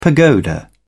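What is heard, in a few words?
"pagoda", British English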